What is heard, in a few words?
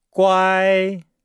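"guai", Chinese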